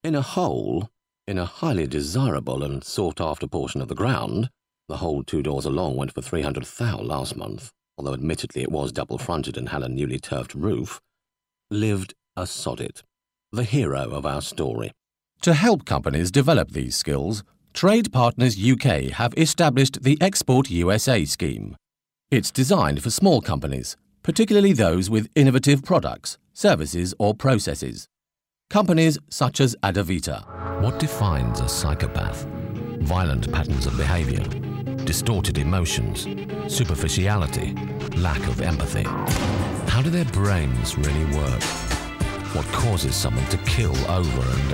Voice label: Narration